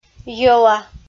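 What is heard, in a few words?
"йӧла" Komi, female; audio dict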